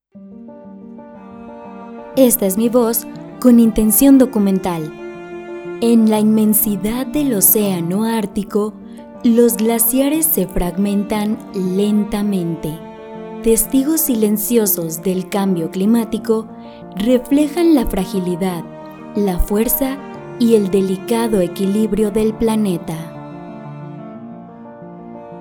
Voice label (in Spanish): Documental Musicalizado; locutora